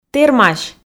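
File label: "тэрмась", Komi, female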